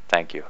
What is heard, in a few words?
"thank you", speaking; talkingVoltmeter